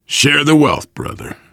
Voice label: alert give item, survivor voice biker; Left 4 Dead 2; left4dead2 dlc3